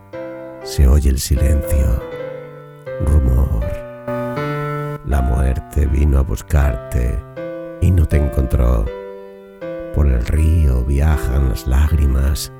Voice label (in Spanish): antologia poemas amor audiolibro; Antología de poemas de amor con voz. Autor: Jesús Ángel Morato; locutor voiceover